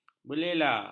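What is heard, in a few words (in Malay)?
"berlela", Malay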